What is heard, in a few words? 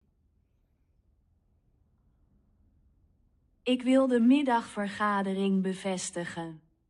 dutch; 10-languages-samples